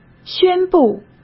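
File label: "宣布", Mandarin Chinese; hsk; chinese-flashcards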